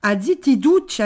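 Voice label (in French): "zitidduccia", Gravona (sud)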